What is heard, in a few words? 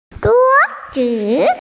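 "zhuo1zi5", Mandarin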